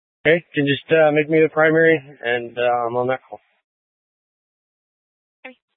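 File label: Police Dispatch, Scanner audio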